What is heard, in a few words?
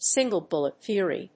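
"Single-bullet theory", English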